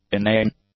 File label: "inane", English; adjectives